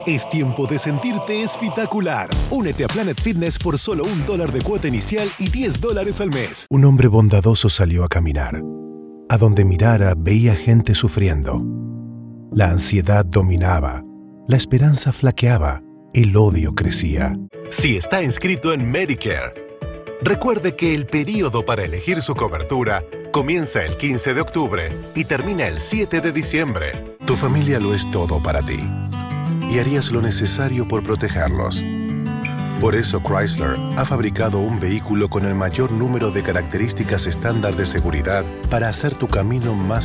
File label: Commercial Demo - Spanish